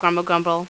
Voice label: grumble grumble; Spades Deluxe 1.6